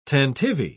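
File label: "tantivy", British English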